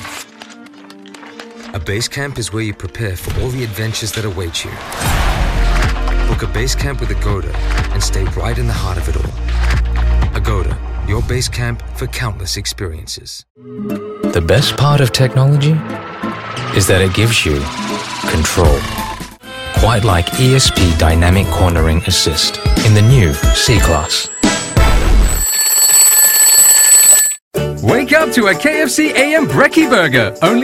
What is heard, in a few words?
Commercial Voice